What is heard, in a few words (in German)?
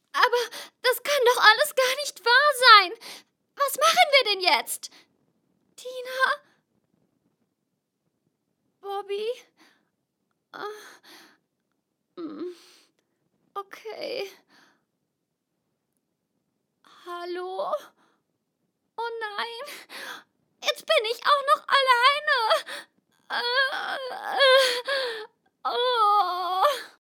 Comicstimme